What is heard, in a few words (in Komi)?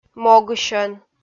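"могысьӧн", Komi, female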